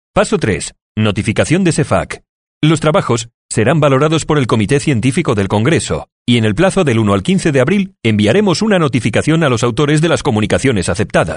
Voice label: Spanish